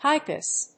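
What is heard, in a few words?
"highpass", English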